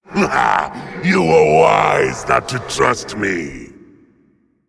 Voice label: Male Inquisitor Play

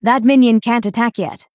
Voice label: Female Dryad ERROR

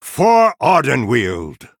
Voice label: Male Night Elf Attack

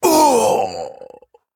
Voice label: Male Troll Death

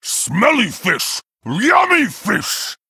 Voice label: Male Tuskar Play

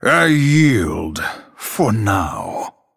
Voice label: Male Night Elf Concede

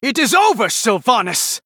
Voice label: Male Human; Anduin

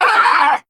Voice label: Male Arakkoa Death

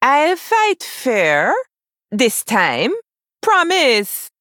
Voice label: Female Troll

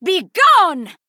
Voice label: Female High Elf Attack

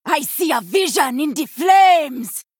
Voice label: Female Troll Play

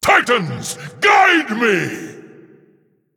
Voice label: Male Titan Forged; Ancestral Knowledge

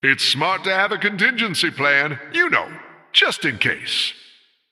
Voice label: Male Human Idle

